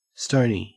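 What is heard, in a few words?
"stony", Australian English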